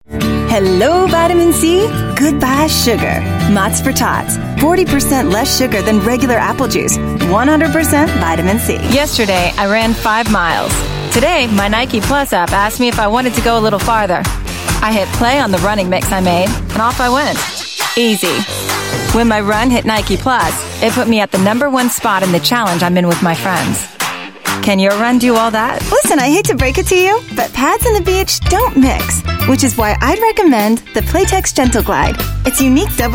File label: US English Commercial, Female